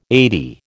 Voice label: "eighty", American

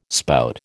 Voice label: "spout", American

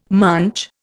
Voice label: "munch", Indian

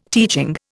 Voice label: "teaching", Indian

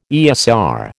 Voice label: "ESR", American

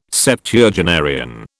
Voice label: "Septuagenarian", British

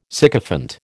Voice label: "sycophant", American

